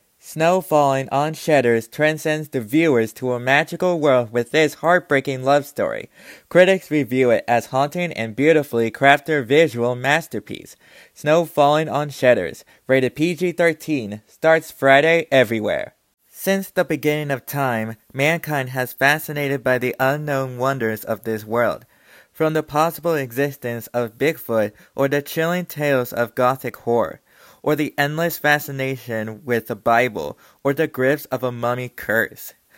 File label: Promo